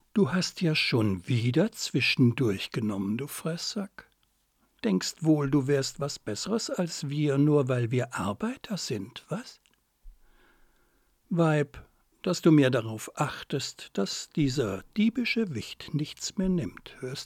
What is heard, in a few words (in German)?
ironisch